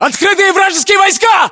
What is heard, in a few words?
threat infantry exposed, battlechatter ru